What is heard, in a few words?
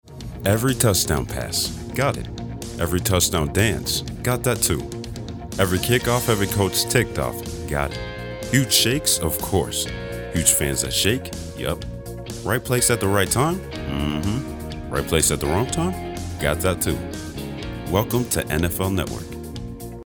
Network Promo; NFL